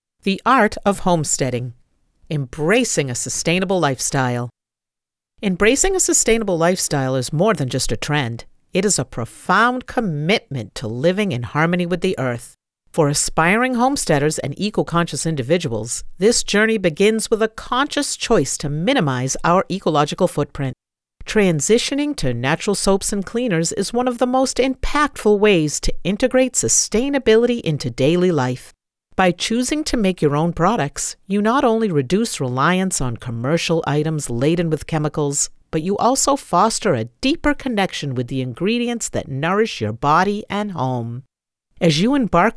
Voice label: Audiobooks non-fiction second person